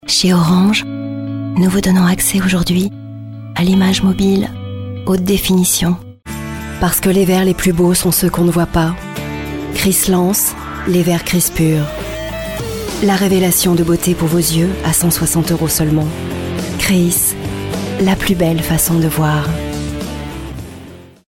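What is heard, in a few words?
French France, female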